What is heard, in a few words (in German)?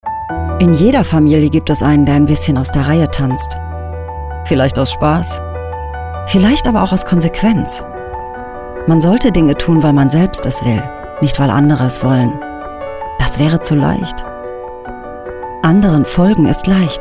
Emo